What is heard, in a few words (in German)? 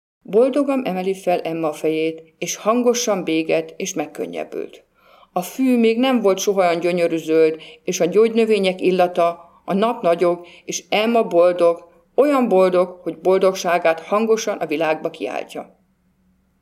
ungarisch